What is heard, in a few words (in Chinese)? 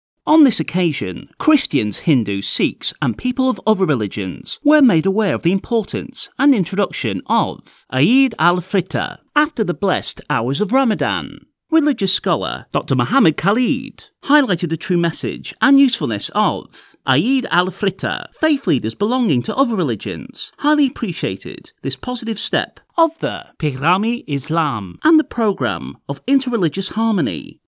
外籍英式男